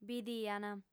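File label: "bidiant", female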